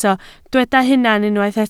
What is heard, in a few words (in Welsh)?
"hunan", Welsh